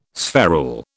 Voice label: "spherule", British